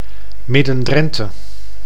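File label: "Midden-Drenthe", Dutch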